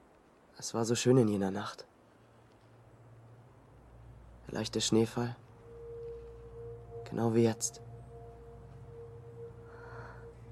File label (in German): Synchron